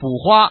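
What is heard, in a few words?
"bu hua", Chinese, female; 男; 欢乐麻将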